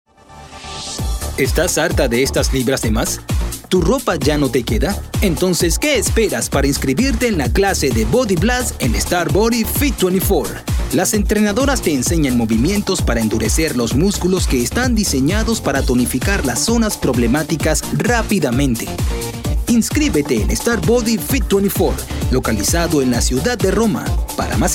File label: SPANISH